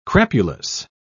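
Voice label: "crapulous", English, British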